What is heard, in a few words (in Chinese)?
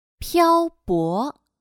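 "漂泊", Chinese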